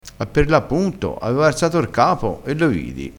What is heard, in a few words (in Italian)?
"apperlappunto", crespinese